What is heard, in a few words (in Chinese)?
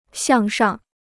"向上", Chinese